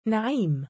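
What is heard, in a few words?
"na3iim", Arabic, male; given name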